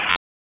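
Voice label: Raleigh Police North District Tactical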